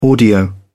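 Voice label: "audio", British English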